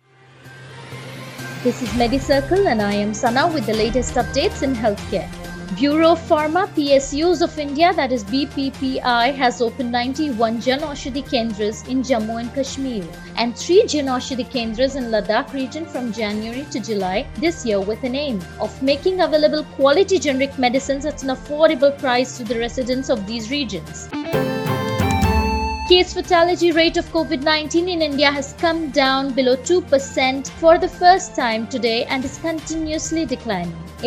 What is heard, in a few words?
english news